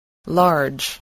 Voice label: "large", English